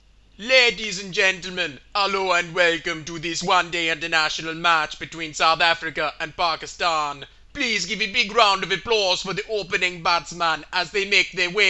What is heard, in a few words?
west indies voice